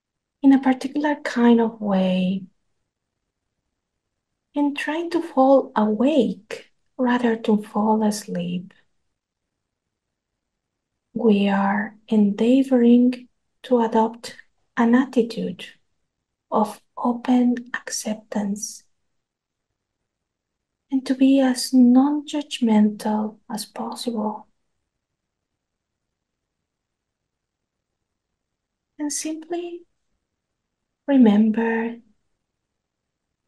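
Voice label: Mindfulness of breath meditation, guided meditation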